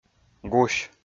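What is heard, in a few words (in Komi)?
"гусь", Komi, male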